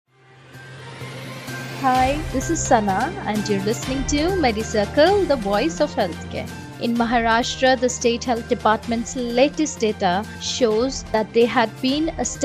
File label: english news